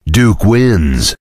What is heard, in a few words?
cheer; svencoop; voice commands; Duke